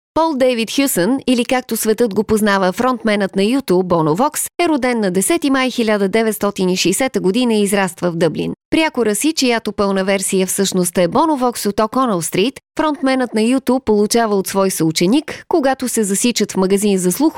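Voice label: Bulgarian, female, voice over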